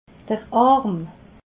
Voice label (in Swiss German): "arm", alsace